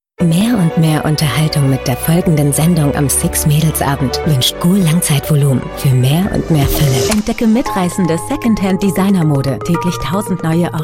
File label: F, DE DE, comm